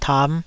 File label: "tam5", taiwanese Syllables